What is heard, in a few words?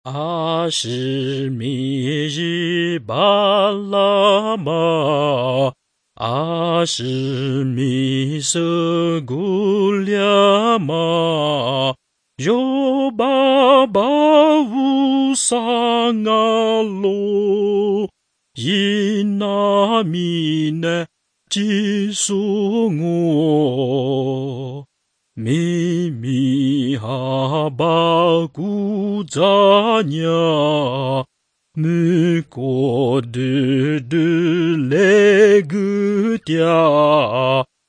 words of life lisu southern